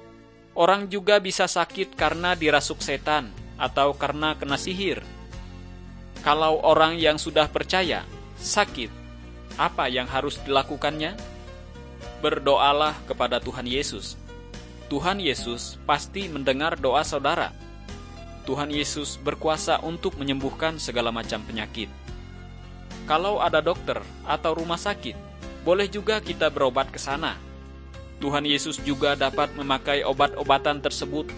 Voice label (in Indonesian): kabar baik good news bahasa indonesia